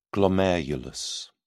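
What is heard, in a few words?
"glomerulus", English, British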